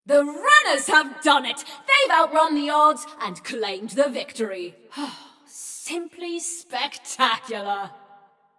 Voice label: Runners Win, Announcer; Flux; Scraprun

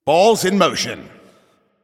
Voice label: Shot On Goal, Announcer

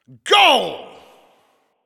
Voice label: Goal Scored, Announcer

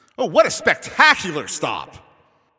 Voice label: Announcer Goalie Save

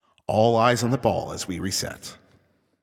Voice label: Announcer Waiting For Players After Score

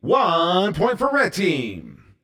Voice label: Point Red, CTF Announcer

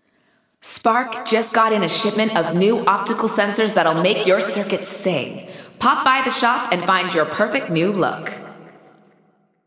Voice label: Announcements; Sparks Supplies; New Eyes; GAIA

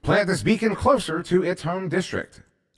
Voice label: Too Far From District, Announcer

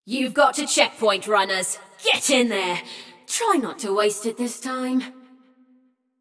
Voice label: Checkpoint Opened, Announcer; Flux; Scraprun

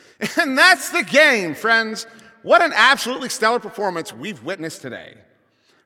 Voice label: Announcer Game Ends

